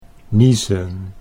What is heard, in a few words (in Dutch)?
"niezen", Dutch